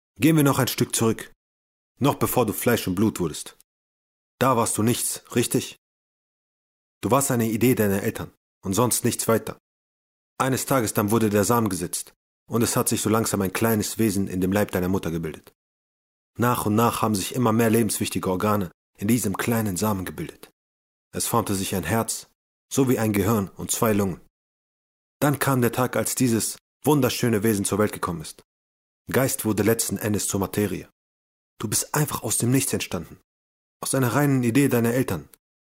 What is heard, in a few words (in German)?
Hörbuch Hörprobe; Wie du aufhörst